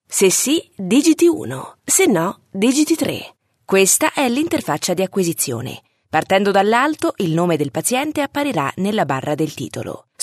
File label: Italian F; voice over